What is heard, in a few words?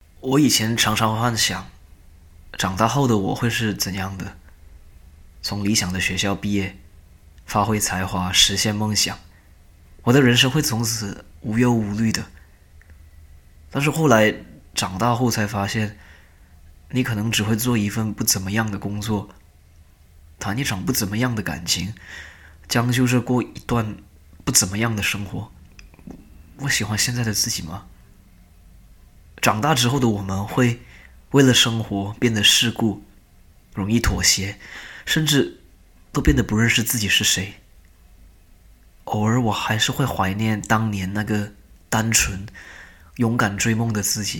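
Chinese, General; GoLocalise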